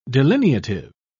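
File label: "delineative", British English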